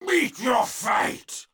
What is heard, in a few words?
Unarmed Elite Alert Line, dialogue; NPC; Heist Cultist Spotted